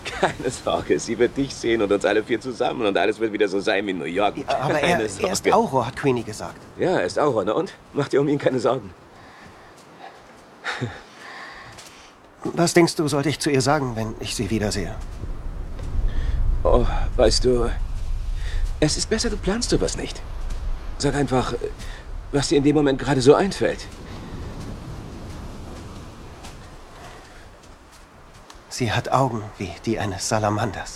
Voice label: Synchron, maenner; Phantastische Tierwesen; Grindelwalds Verbrechen

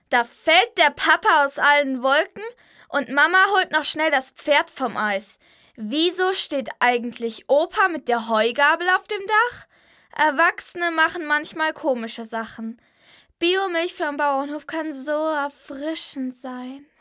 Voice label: Spot, maedchen; Biomilch

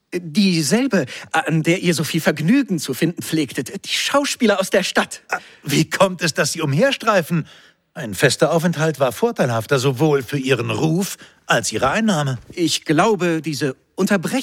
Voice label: Hörspiel; Hamlet; Rosenkranz

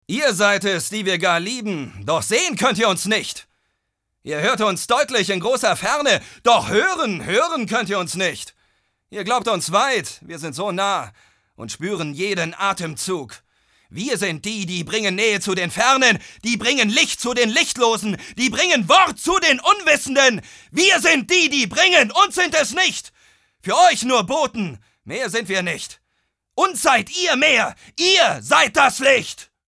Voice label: aggressiv, maenner

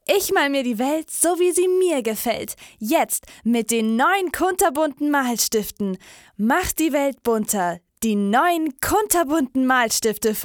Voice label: maedchen

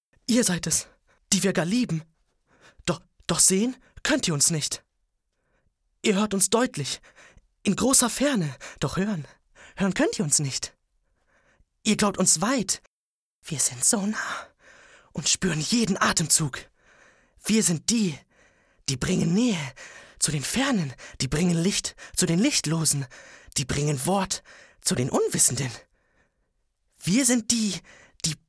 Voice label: Psycho, Jungen

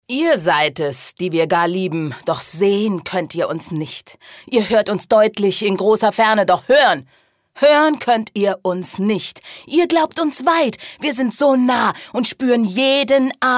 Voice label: aggressiv, frauen

